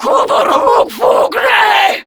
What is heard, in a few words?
Cover Me, Grineer Female; Warframe; Battle Barks